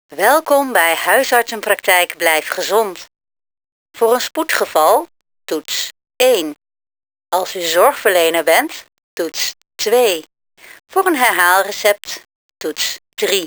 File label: voicemail; Voiceresponse